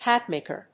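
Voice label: "hat-maker", English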